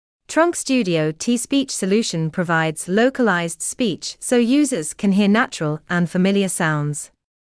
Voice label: English UK